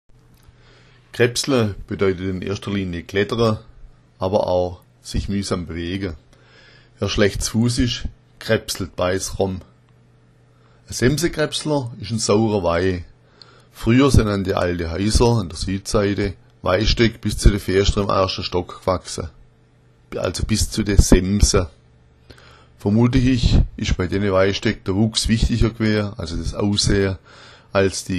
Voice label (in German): krebsla, schwaebisch